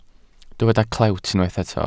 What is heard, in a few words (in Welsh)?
"clewt" Welsh